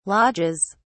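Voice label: "lodges", British English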